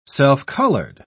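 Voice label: "self-colored", British English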